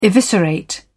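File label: "eviscerate", British English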